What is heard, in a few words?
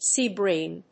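"sea bream", English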